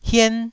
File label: "hien1", taiwanese syllable